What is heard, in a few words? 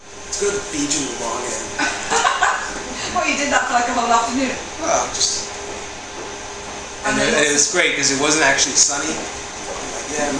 accents in the office